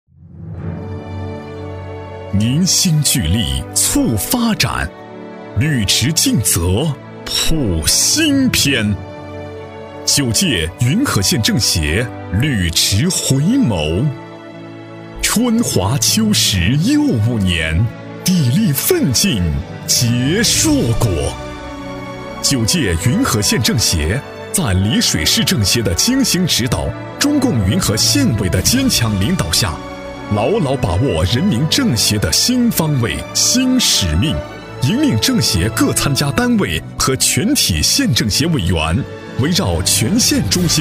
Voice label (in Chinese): 男 专题配音 大气弘扬; 政协宣传; 大气磁性